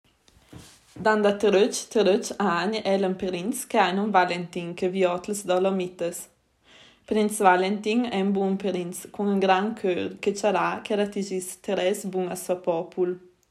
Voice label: Badia, La Villa, F; Stimolo 1; La Villa (F, 2001); Stimoli raccolti autonomamente nel quadro del lavoro di ricerca; Stimoli principali

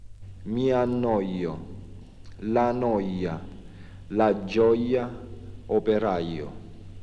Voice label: Semivocale I Lecce

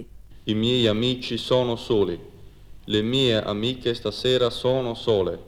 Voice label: O chiuso Cagliari

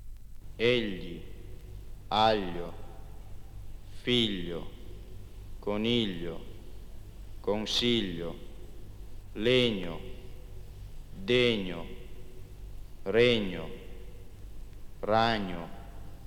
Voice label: Fonemi Lunghi Torino

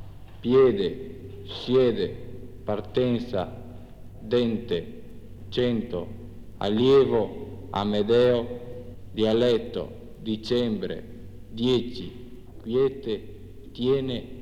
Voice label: E Aperto Padova

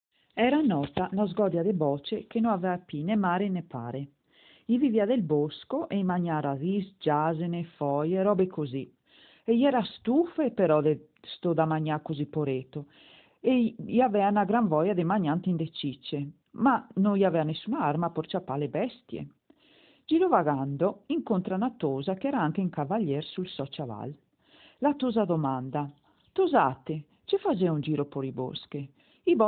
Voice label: Auronzo, Auronzo di Cadore, F; Stimolo 2 - Auronzo di Cadore (F, 1972)

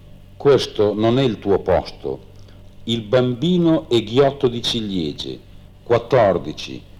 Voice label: O chiuso Bologna

